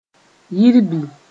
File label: "yirbi", Mooré